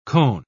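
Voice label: "koan", English, British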